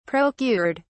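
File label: "procured", English, British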